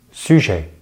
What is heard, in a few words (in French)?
"sujet", French; Vocales orales en francés; easypronunciation